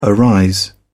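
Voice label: "arise", British English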